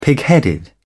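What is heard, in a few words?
"pigheaded", British English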